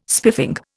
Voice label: "spiffing", Indian